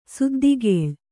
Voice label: "ಸುದ್ದಿಗೇಳ್", Kannada

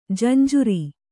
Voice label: "ಜಂಝಾರ", Kannada

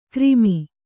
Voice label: "ಕ್ರಿಮಿ", Kannada

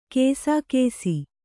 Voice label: "ಕಸಕಸೆ", kannada

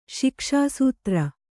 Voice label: "ಶಿಕ್ಷಾಸೂತ್ರ", Kannada

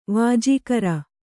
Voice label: "ವಾಜೀಕರ", kannada

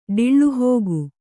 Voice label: "ಡಿಳ್ಳುಹೋಗು", Kannada

